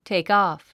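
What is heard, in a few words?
"takeoff", English word; téikɔ̀(ː)f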